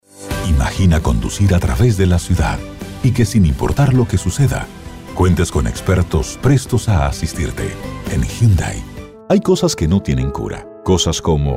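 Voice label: Spanish Latin America male voice over, sales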